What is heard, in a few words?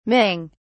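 "ming", British English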